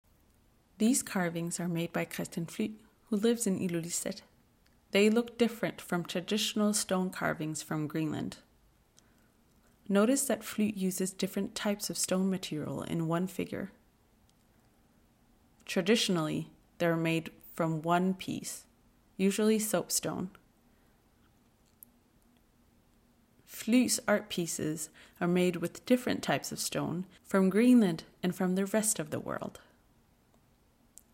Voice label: English